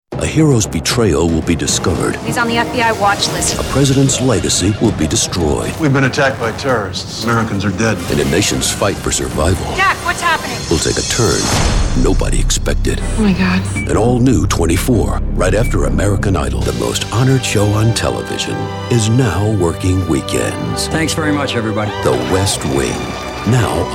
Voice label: Promo